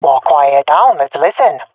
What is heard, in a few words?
request quiet, swat fem; vo; Counter Strike Model Pack; CS:GO